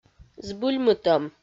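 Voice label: "збыльмытӧм", Komi, female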